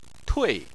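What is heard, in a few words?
"tui", Chinese, fourth tone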